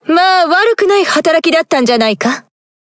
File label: Speech Quick Commands Good Game; JeanBart; wows